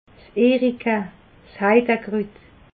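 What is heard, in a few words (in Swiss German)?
"erika", Alsatian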